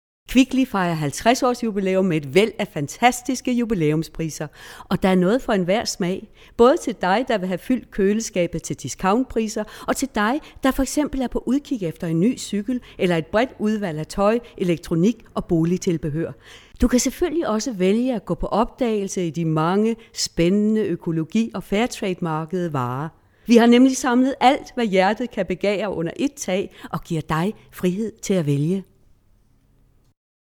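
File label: Danish Commercial; GoLocalise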